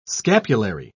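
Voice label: "scapulary", British English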